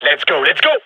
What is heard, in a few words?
radio lets go; gign